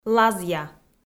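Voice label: "лазъя", Komi, female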